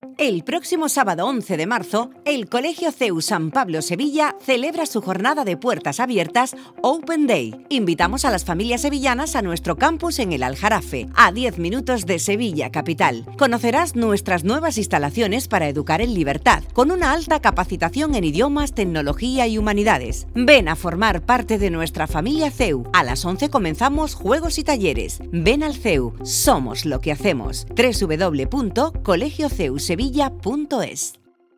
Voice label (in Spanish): cuña con musica institucional